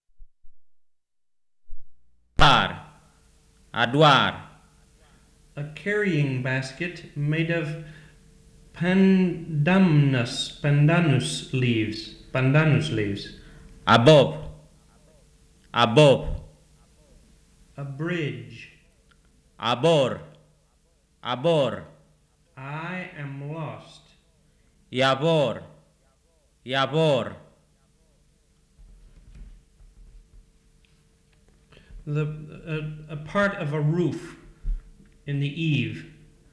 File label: BIAK